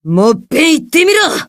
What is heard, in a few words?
angry